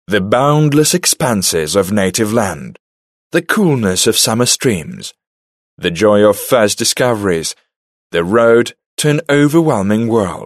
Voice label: british male